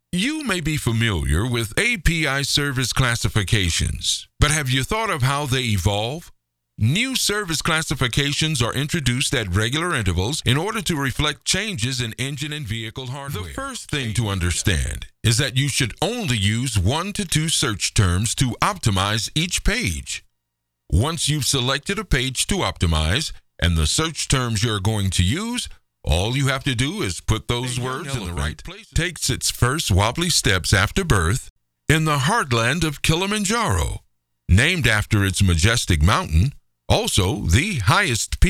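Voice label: eLearning Male, Edgy, Big, Urban; Narration, eLearning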